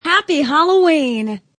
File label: Woman Says Happy Halloween; Holiday